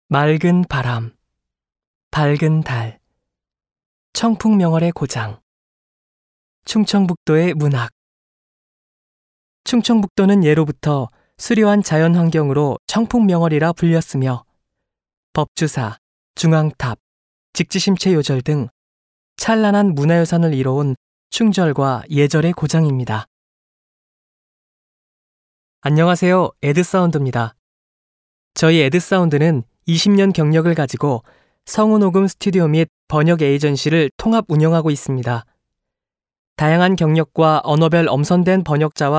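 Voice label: Korean male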